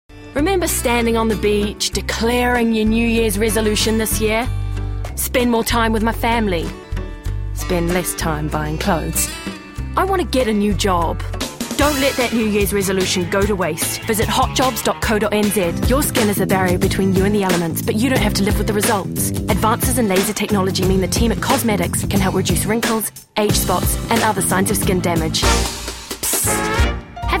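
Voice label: English New Zealand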